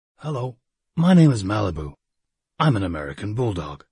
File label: en american bulldog, voiceover